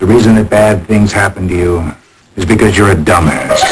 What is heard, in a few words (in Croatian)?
glas, GSM 22 Khz, mono